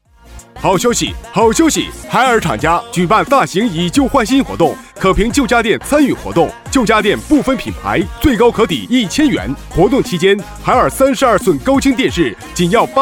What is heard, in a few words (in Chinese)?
男 促销; 海尔专卖店